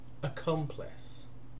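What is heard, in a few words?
"accomplice", British English; pronunciation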